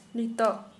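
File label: Santali, female, now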